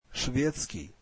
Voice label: russian Swedish

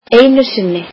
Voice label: "once", Icelandic

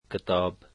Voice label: "book", dari

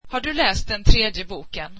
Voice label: swedish did you read the third book

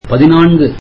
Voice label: tamil fourteen

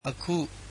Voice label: now, burmese

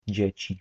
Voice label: "children", polish

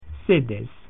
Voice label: "chair", Latin

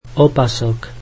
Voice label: slovak belt

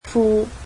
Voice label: "trousers", Cantonese

